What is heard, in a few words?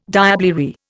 "diablerie", Indian